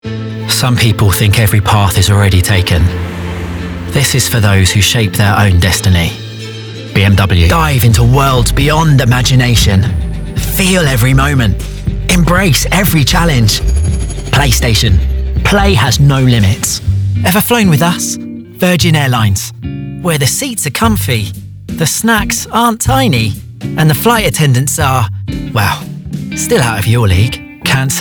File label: Commercial